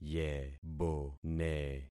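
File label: "yebone", concatenated syllables; Affixing experiment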